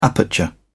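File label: "aperture", British English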